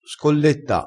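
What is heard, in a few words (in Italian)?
"scolletta", dialettale, crespinese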